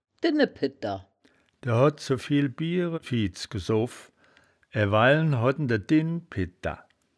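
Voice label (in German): "dinnepiddter", wittlicher; Dinnepidter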